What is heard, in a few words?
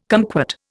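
"kumquat", Indian English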